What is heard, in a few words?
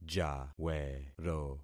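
"jawero", concatenated syllables; Affixing experiment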